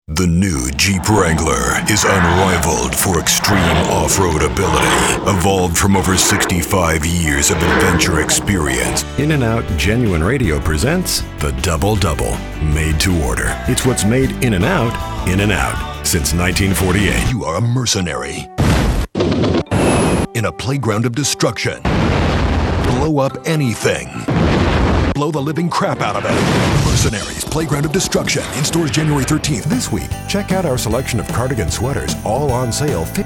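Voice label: commercial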